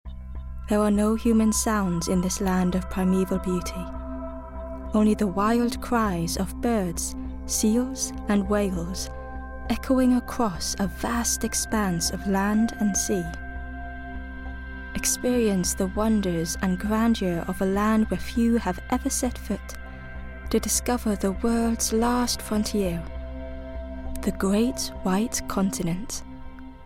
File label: english narration